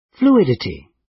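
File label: "fluidity", British; The arts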